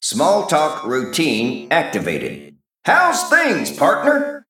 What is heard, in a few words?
Male Mech Flavor